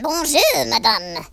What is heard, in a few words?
"hello", French; speech